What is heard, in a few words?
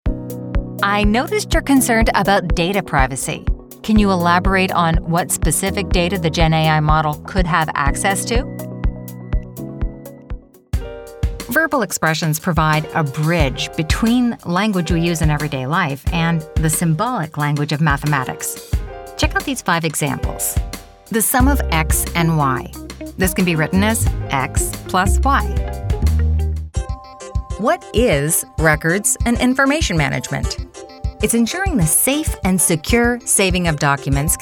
e-Learning